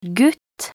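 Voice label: "gutt", Norwegian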